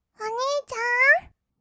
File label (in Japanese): "おにいちゃん？", kawaii voice; ASMR; 二人称